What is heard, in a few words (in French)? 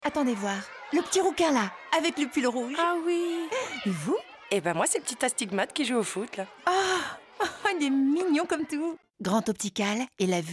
Voix 1 et signat Maman douce; Pub Radio